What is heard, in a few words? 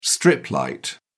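"strip light", British English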